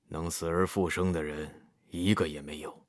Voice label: emo sad; IndexTTS-2-Demo

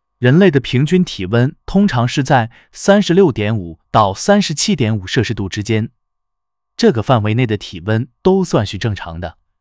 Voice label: male, zh; KE-Omni

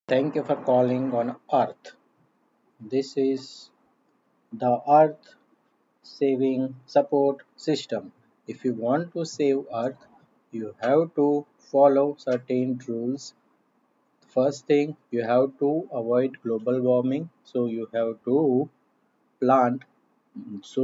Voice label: hip voice

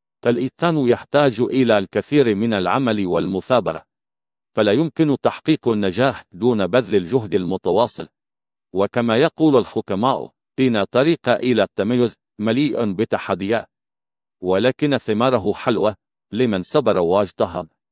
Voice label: synthesized audio, arabic; StyleTTS2-LibriTTS-arabic

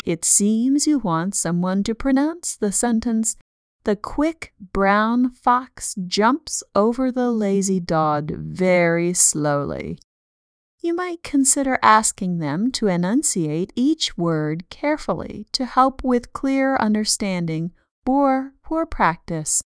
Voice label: Babble; S2S-Bench; cascade; rhythm

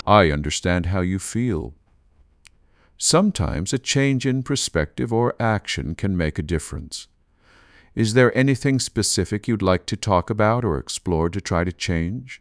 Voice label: emotion; S2S-Bench; cascade

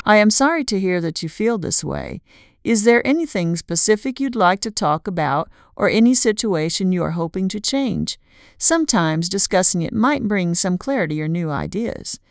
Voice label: emotion; S2S-Bench; cascade

